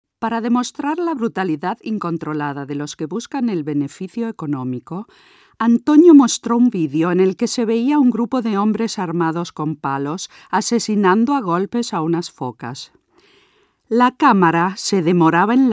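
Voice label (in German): Spanisch Hörbuch; Spanisch (Spanien)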